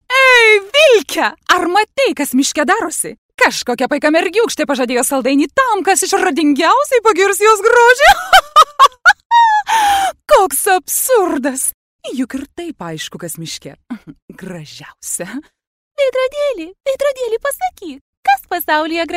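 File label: Lithuanian Characters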